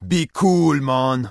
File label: Troll Male Shaman NPC Pissed